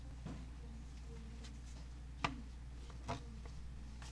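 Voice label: "gentle", spoken word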